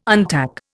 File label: "untack", Indian